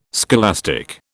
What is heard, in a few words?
"scholastic", British